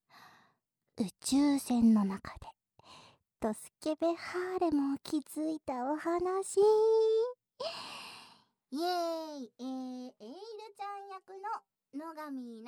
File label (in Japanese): フリートーク; ASMR